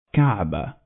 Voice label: "Kaaba", Arabic